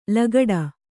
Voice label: "ಲಗಾಟಿ", Kannada